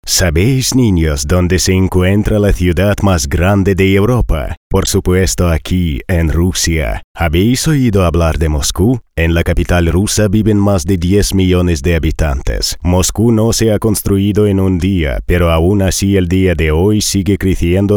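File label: Spanish language voice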